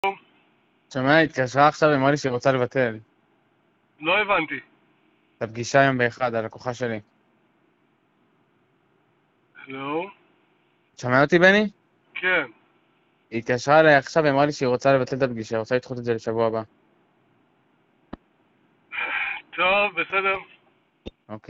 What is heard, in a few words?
Call recording